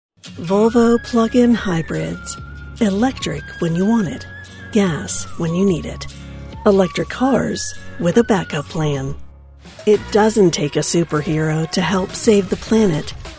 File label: Commercial, Female Voiceover